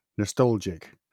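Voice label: "nostalgic", English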